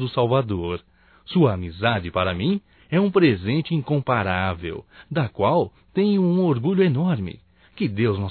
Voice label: NATAL ANO NOVO AMIGO (M); Telemensagens 2017

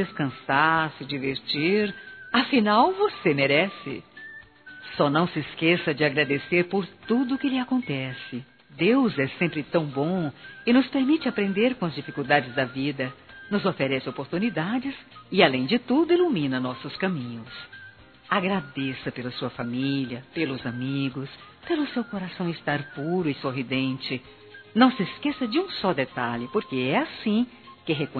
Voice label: Boas FÉRIAS Feminino; Telemensagens; Telemensagens 2017

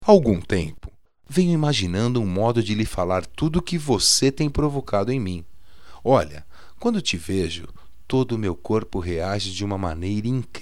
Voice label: Conquista, masc

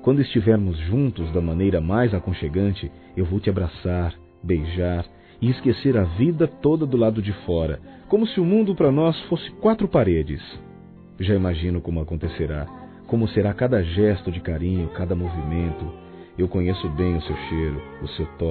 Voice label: Romantica Sedução Imenso Desejo de Você Masculino

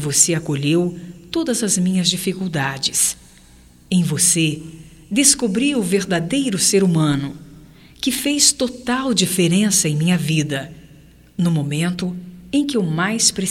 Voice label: AGRAD PELA AJUDA, fem

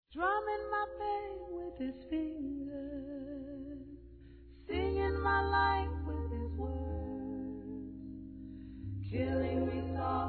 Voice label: TIA F, Telemensagens, Aniversários, Fem; Aniv Familiar

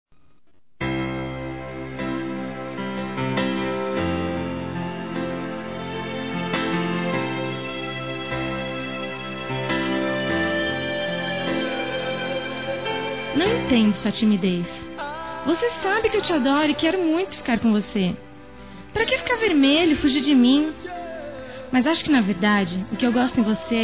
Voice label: Deixa de ser tímido, fem; Telemensagens; Telemensagens 2017

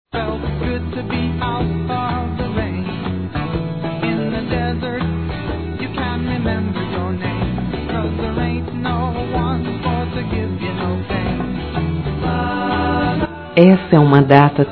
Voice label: FILHA P PAI, fem; Telemensagens 2017

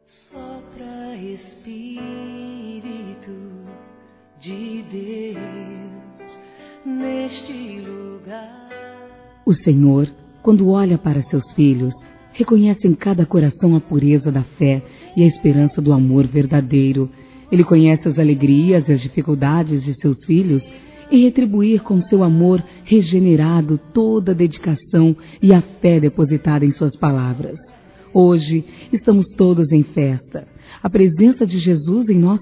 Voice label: Aniversário Avó Feminino; Aniversários; Aniv Familiar

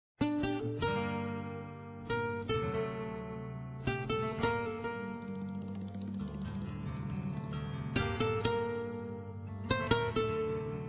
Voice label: Aniversário de Amiga F, Telemensagens; Aniversários; Aniv Familiar

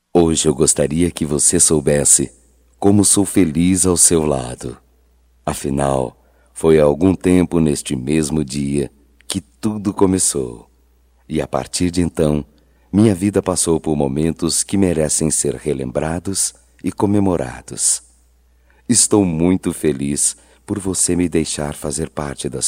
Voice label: aniversario casamento ou namoro m, Só Voz, masc; Telemensagens; Telemensagens 2017

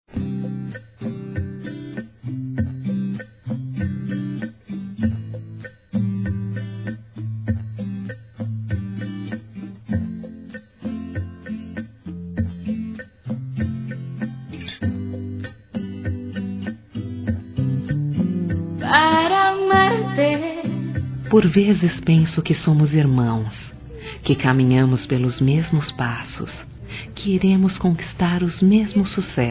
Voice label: Aniversário Primo Feminino; Aniversários; Aniv Familiar

